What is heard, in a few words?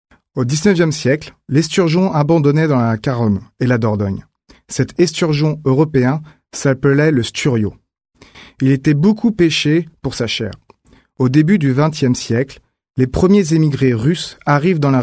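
French, male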